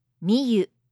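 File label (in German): "Miyu", japanische Mädchennamen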